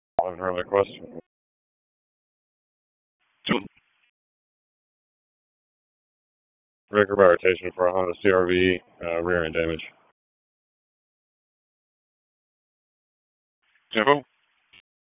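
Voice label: Police District Dispatch; Raleigh Police Northeast District Disp; wake p25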